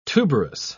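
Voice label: "tuberous", British English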